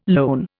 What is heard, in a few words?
"lone", Indian